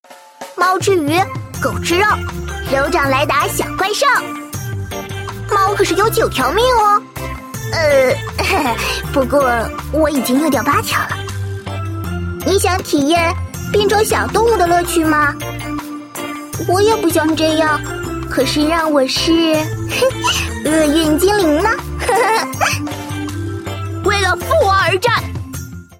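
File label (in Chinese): luoli zhengtai, loli shota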